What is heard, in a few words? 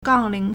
"gang4 ling2", Chinese